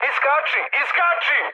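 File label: Serbian bailout mood high, speech; War Thunder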